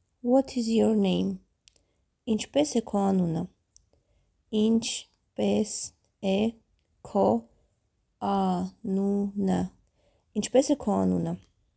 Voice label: What is your name, armenian; Inchpes e ko anuny

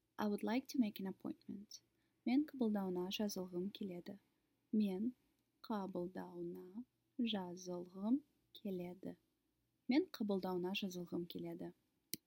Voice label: I would like to make an appointment, kazakh

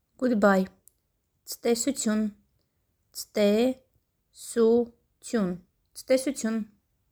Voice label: Good bye Armenian Tstesutyun more formal

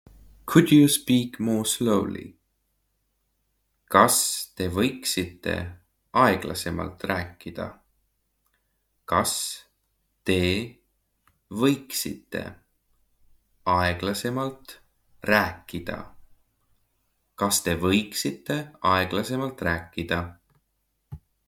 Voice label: Could you speak more slowly, Estonian phrasebook; the talking estonian phrasebook

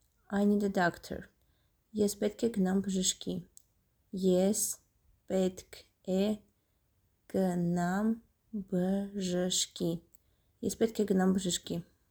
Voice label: I need a doctor Armenian Es petq e gnam bzhshki

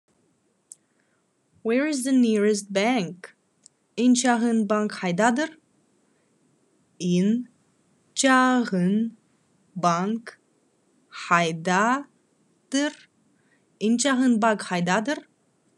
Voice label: Where is the nearest bank, Khakas

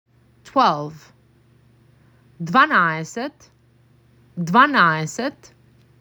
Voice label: MAC 6, macedonian phrasebook

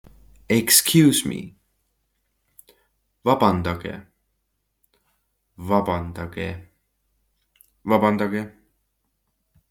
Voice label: Excuse me, estonian; the talking estonian phrasebook

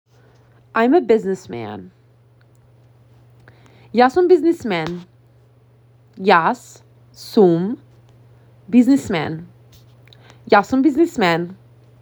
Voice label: I am a businessman, macedonian; the talking macedonian phrasebook

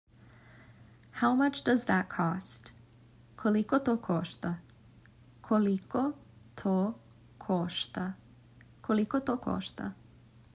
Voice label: "How much does that cost", Serbian

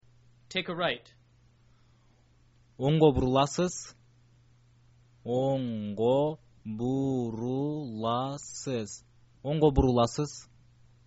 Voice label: take a right, kyrgyz

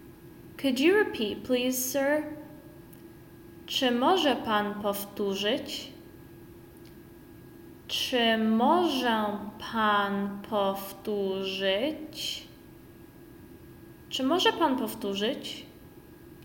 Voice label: Could you repeat please Sir, Polish; the talking polish phrasebook

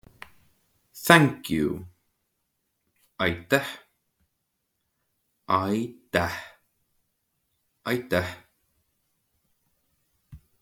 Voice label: Thank you, estonian; the talking estonian phrasebook

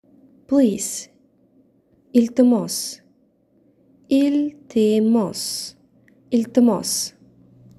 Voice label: "Please", Tajik

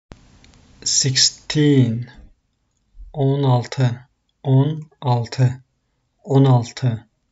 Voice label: sixteen 16, Turkish; the talking turkish phrasebook

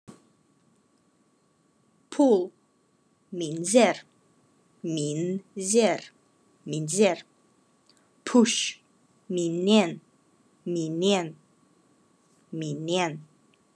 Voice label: Khakas Push pull

